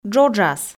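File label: "джоджас", Komi, female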